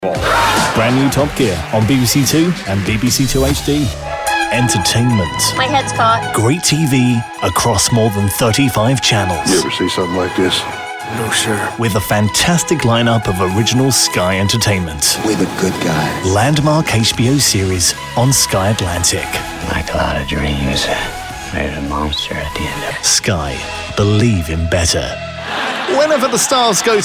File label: promo men british